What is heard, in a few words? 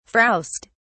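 "frowst", British English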